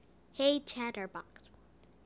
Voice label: hey chatterbox, en us